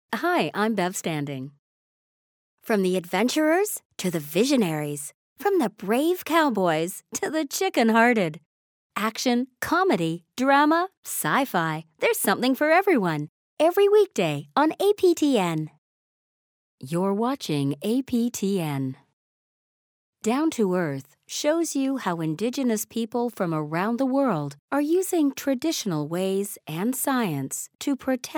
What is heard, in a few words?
english us and canada female narrative